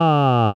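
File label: voice aa male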